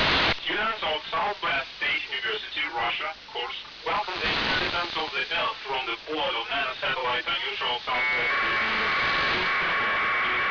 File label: english